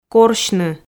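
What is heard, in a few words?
"корсьны", Komi, female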